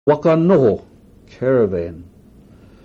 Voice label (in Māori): "waka noho", maori words